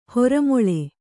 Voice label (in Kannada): "ಹೊರಮೊಳೆ", kannada